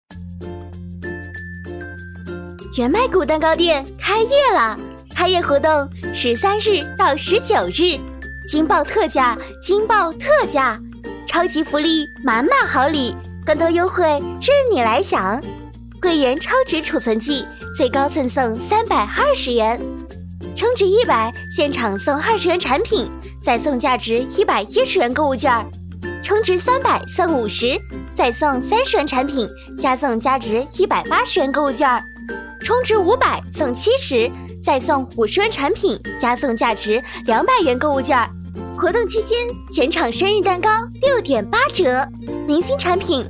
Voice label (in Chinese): 广告促销